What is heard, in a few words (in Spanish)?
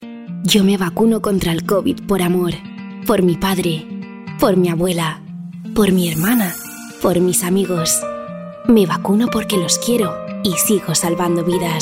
Radio Vacunacion Chica